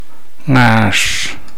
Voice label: chin, Namolukese